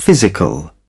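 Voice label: "physical", British English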